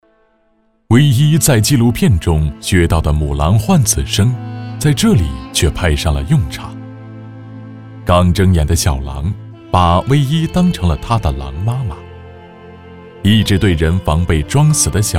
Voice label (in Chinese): 纪录片, 自然科教, 狼